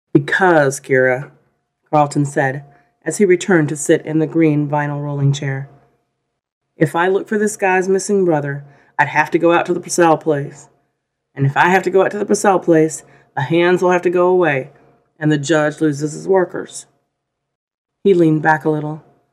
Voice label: distortion